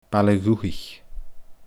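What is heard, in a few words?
"Baile Dhubhthaich", Scottish Gaelic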